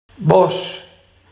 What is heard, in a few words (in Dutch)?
"bos", Barghs